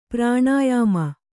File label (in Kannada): "ಪ್ರಾಣಾಯಾಮ", Kannada